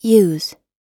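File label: "use", American English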